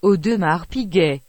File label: "Audemars Piguet" Pronunciation